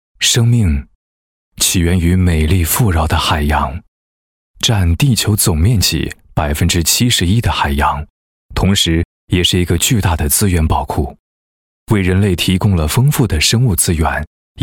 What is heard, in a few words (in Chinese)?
男 宣传片 产品宣传片配音; 海稻86